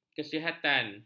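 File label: "kesihatan", Malay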